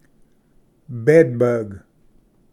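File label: "bedbug", English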